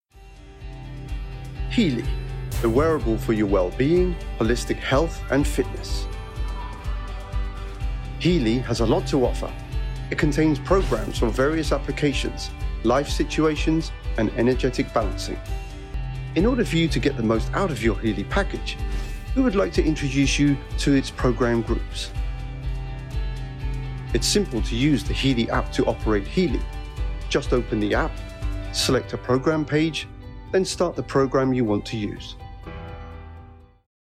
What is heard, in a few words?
Product Introduction Instructional; healy